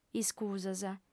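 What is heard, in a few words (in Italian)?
"iscusas", lingua sarda, fem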